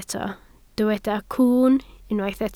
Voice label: "cwwn", Welsh